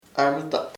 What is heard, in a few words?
"menstruation menstruación", chamacoco